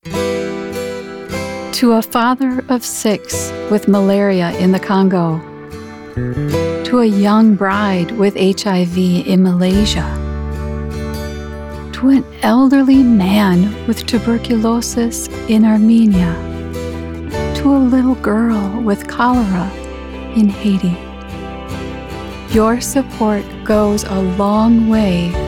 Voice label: english us and canada female generic